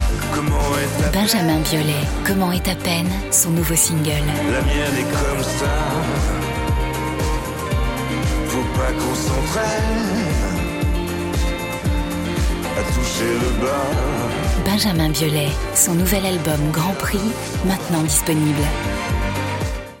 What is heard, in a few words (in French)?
Pub disque